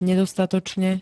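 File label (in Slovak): "nedostatočne", Slovak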